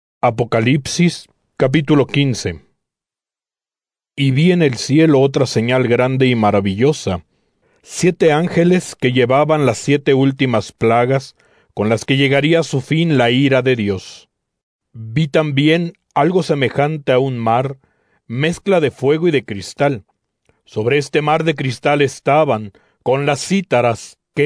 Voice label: spanish, non-drama; apocalipsis 15; biblia de america nt